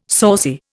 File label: "saucy", Indian